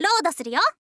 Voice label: "load suruyo", girl; voice

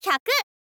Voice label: "hyaku", girl; voice

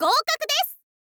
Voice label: "goukaku desu", girl; voice

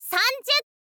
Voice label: "sanzyu", girl; voice; info girl

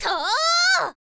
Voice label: "tou", girl

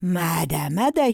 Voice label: necromancer oldwoman guard, voice

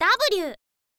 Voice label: "w", info girl voice

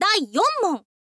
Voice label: "daiyonmon", girl; voice

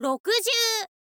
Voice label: "rokuzyuu", girl; voice; info girl

